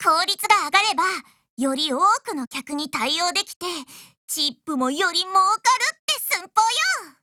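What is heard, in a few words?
LEVEL UP, Japanese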